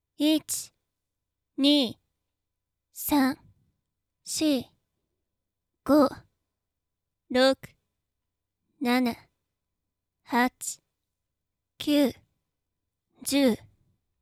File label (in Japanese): 筋トレカウント（厳しい）